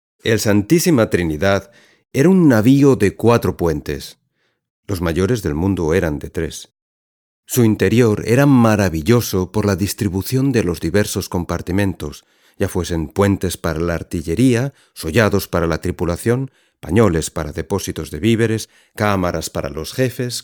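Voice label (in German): Kommentar, Spanish, Neutral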